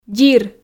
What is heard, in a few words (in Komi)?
"дзир", Komi